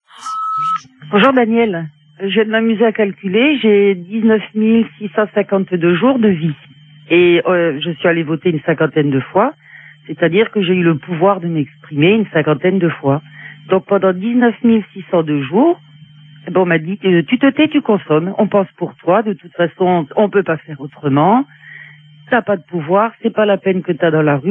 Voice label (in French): Manif retraites femme au foyer répondeur